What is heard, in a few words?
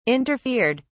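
"interfered", English, British English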